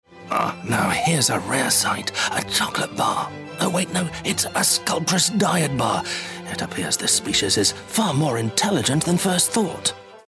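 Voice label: British commercial nature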